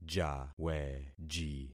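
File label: "jaweji", concatenated syllables; Affixing experiment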